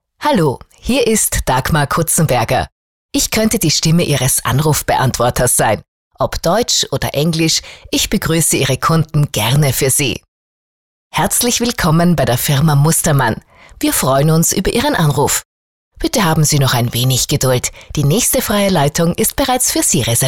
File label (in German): Sprecher Telefonansagen